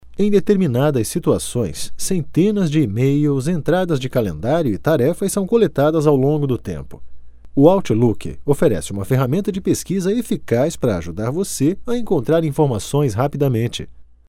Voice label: locutor brasil portuguese brazilian voiceover, locutor nativo de Brasil; Locucao brasileira